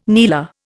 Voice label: "kneeler", Indian